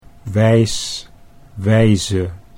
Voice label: "wijs wijze", Dutch

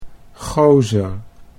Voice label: "goser", Dutch

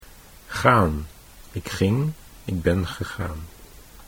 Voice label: gaan ik ging, Dutch

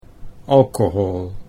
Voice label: "alcohol", Dutch

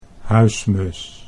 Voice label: "huismus", Dutch

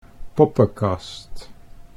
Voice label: "poppenkast", Dutch

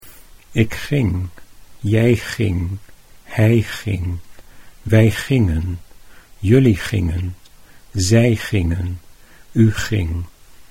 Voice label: "ik ging", Dutch

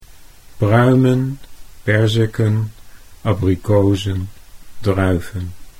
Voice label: "pruimen", Dutch

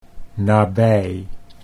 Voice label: "nabij", Dutch

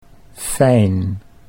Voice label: "fijn", Dutch; 'pleasant'

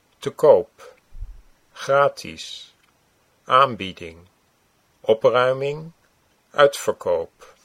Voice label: te koop gratis, Dutch

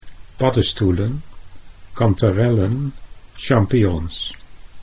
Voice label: "paddestoelen", Dutch

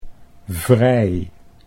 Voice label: "vrij", Dutch

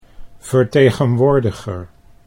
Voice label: "vertegenwoordiger", Dutch

